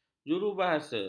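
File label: "jurubahasa", Malay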